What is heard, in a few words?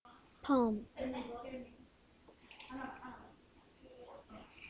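"pump", spoken word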